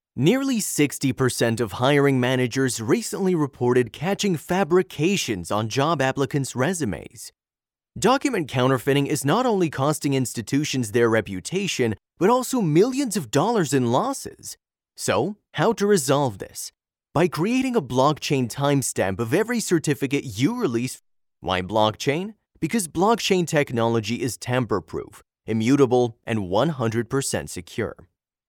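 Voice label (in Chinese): 外籍男声 美式英语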